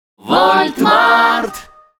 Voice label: rus

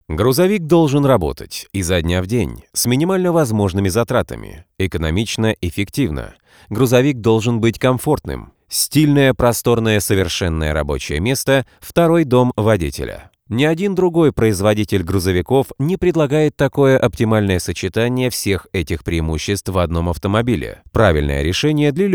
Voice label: solid rasskaz Rus

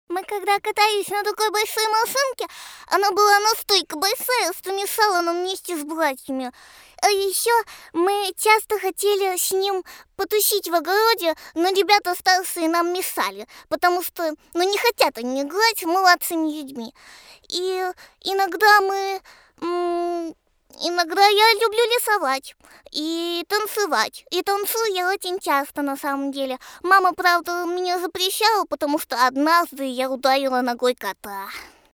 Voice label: det rus

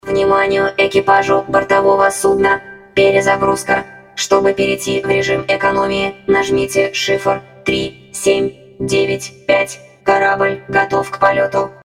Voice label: robot Rus

